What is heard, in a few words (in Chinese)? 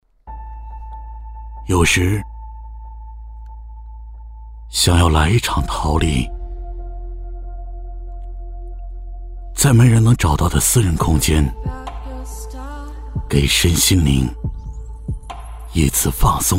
男 tvc水广告